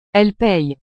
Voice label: "elle paye", frances

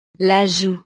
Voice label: "la joue", frances; partes del cuerpo

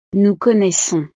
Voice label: "nous connaissons", frances

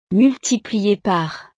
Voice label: "multiplie par", frances

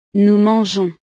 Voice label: "nous mangeons", frances

